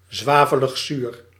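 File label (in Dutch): "zwaveligzuur", Dutch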